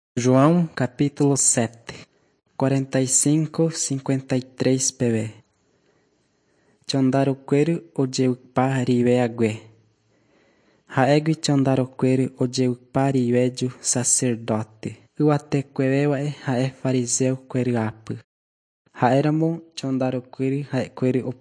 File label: john guarani mbya, bible